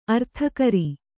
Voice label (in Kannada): "ಅರ್ಥಕರಿ", Kannada